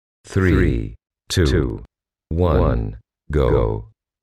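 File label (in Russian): мужской голос отсчитывает 3-2-1 go slap back doubler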